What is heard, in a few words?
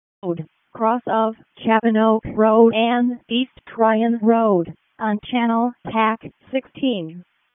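Raleigh Fire Department ALERT, p25; RFD ALERT; wake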